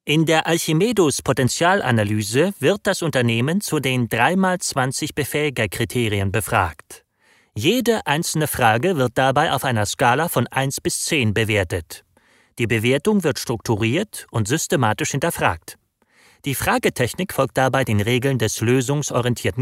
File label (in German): erklaervideo cold voice; Alchimedus